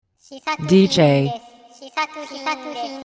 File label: How to say your DJ name, female